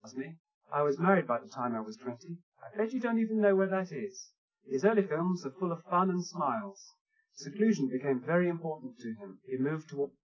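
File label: male speech; SiSEC10; underdetermined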